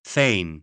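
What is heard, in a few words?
"thegn", British; gb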